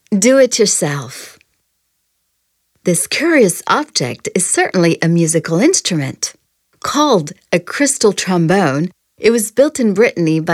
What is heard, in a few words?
audioguia english; delamusica